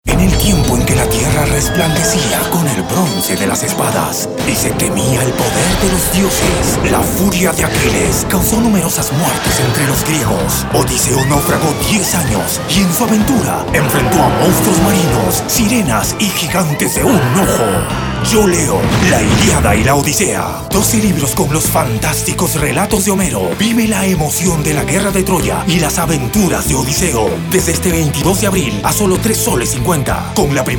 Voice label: Spanish Movie Trailer

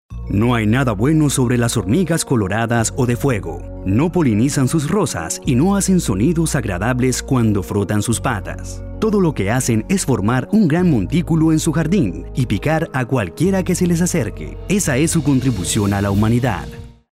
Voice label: ominous

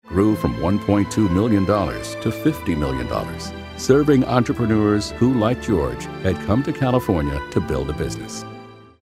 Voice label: narrative; Build a business

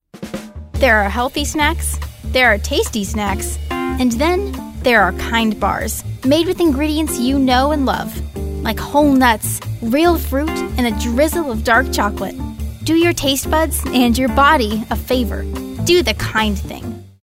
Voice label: girl next door

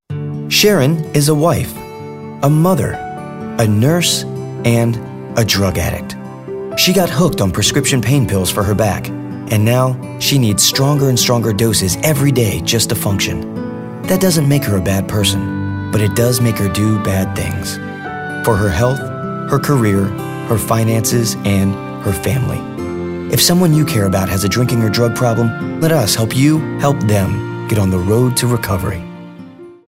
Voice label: Caring

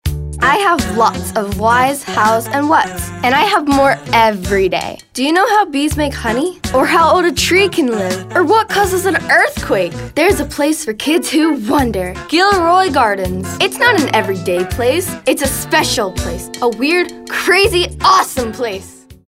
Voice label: kid next door

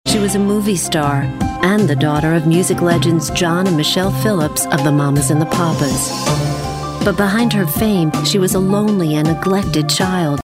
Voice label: narrative storytellers concerned newscasters

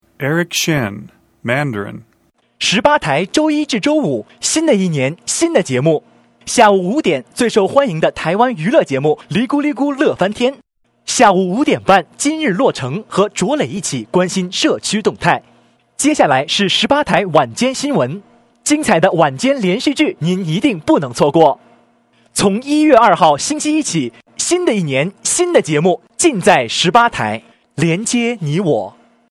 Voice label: Mandarin

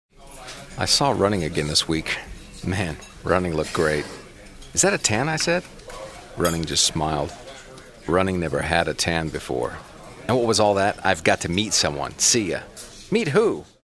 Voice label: confessional

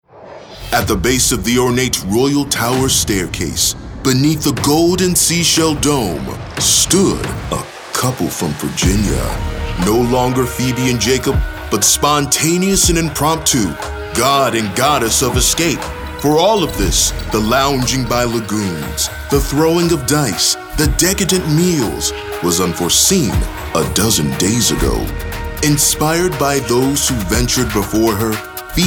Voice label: storyteller

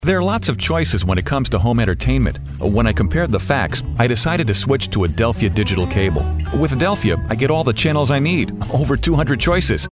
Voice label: anti announcer

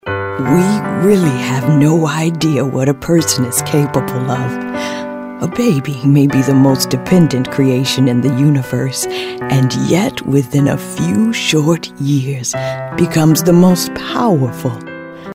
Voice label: mature

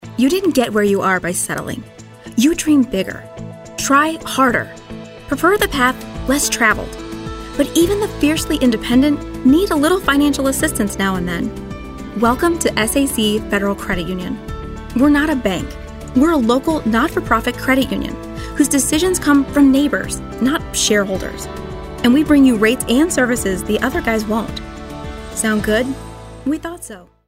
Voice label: inspirational

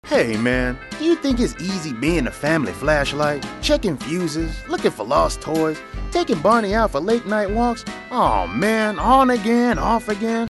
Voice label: animated

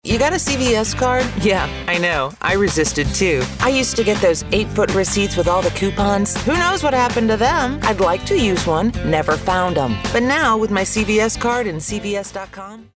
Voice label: anti announcer

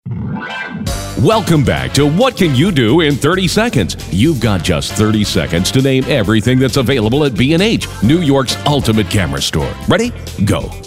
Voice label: game show host

